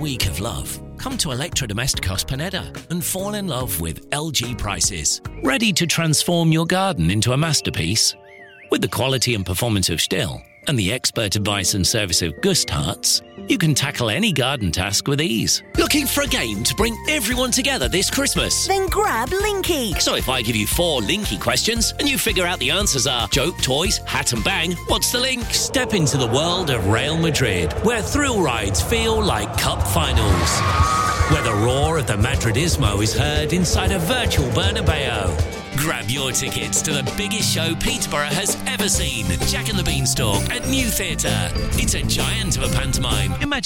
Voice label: commercial voiceover showreel, British; Commercial Voiceovers – Apple Style